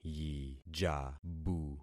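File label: "yijabu", concatenated syllables; Affixing experiment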